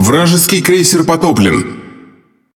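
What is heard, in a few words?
Russian Speech Destroy Enemy Cruiser; World Of Warships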